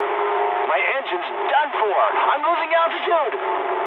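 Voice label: English damaged engine mood medium; War Thunder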